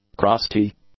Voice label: "crusty", English; adjectives